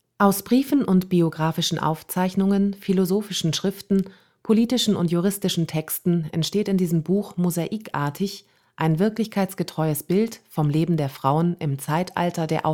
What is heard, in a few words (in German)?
Sprachdemo Sachtext